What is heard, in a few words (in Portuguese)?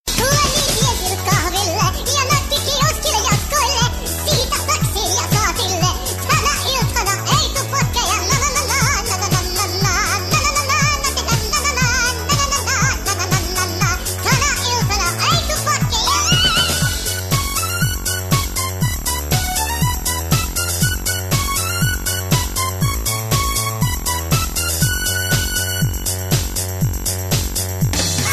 voz engracada toque